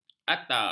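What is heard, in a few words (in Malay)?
"atar", Malay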